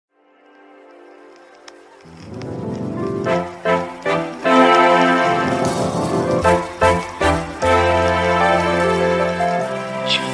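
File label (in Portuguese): reconciliacao masc, Telemensagens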